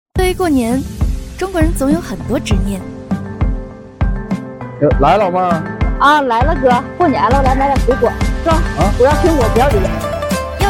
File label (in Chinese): 女 素人 欢快